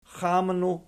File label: "xamnu", Archi